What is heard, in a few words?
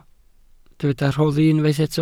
"rhoddi", Welsh